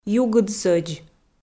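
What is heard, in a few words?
"югыдсӧдз", Komi, female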